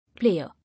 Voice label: "Layer", English